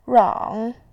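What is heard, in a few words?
"rang", Mandarin Chinese, third tone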